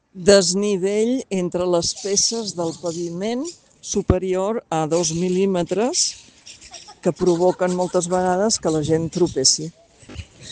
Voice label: Voice message